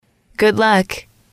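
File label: "good luck", English, female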